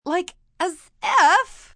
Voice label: As If Valley, Attitude, female: 'Like as if!' (valleygirl), angry, annoyed, (human voice speaking)